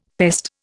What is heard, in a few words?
"pest", Indian; Dictionary